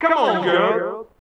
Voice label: Male FX Vocals; Houseworx